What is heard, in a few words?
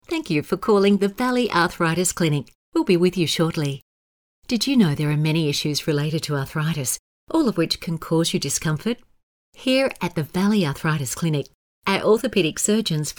Warm Friendly